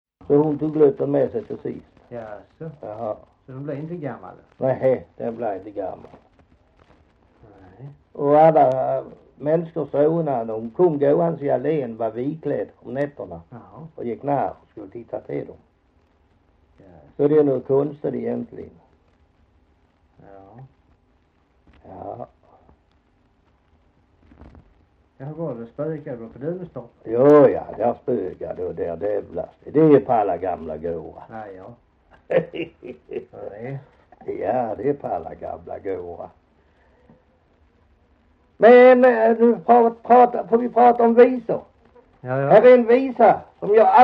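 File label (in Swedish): Hasslöv Halland, dialekter svenska sagor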